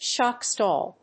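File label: "shock stall", English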